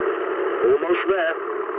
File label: eng near waypoint mood low, speech eng